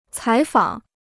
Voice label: "采访", Chinese